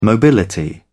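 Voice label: "mobility", British English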